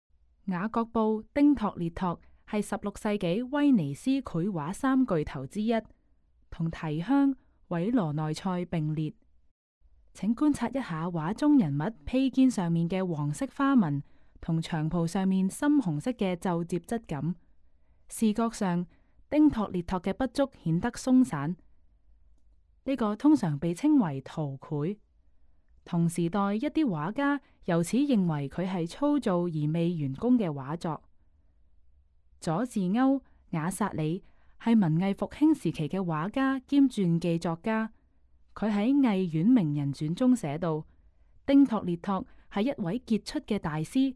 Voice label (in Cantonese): Canto; audio guide